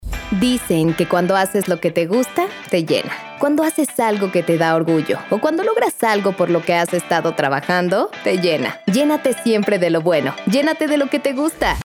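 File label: comercial, Mexican Spanish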